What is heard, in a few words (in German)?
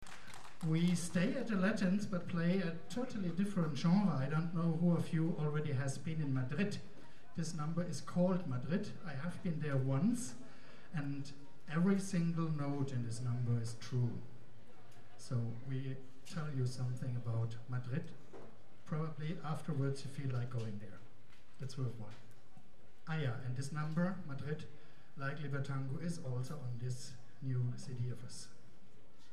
Ansage